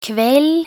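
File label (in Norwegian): "kveld", Norwegian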